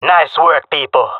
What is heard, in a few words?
round won, jungle male; agents